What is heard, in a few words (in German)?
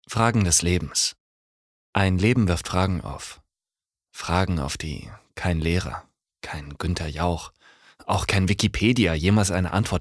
Schauspiel Dynamisch